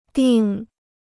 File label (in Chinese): "订", Chinese